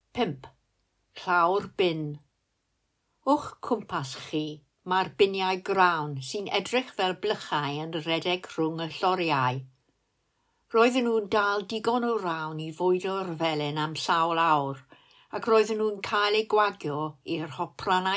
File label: Mill Welsh; Melin Heli Caeriw; Castell Caeriw; taith sain